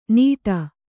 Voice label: "ನೀಟ", Kannada

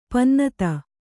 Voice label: "ಪನ್ನತಿ", Kannada; pannaa; noun

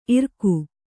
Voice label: "ಇರಿಕೆ", Kannada

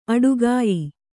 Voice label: "ಆಟಗಾಯಿ", Kannada

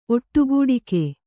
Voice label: "ಒಟ್ಟುಗೂಡಿಕೆ", Kannada

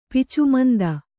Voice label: "ಪಿಚುಮಂದ", kannada

